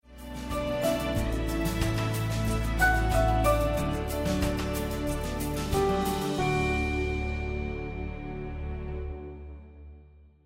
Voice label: Sermon English